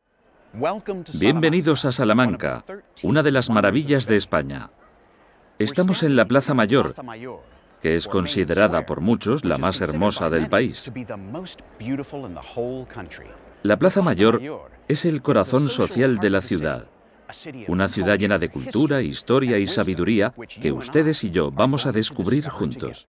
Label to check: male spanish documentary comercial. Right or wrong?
Right